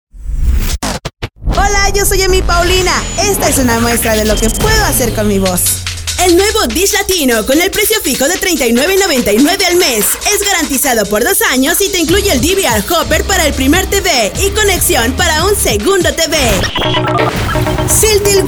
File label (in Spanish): spots, banco voces